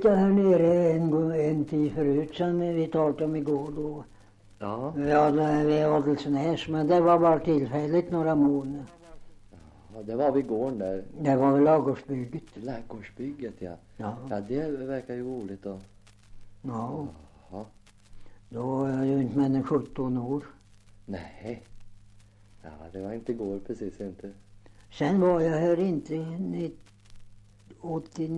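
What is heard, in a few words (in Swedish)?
Oppeby Åtvid Östergötland, svenska sagor dialekter; Folkminnen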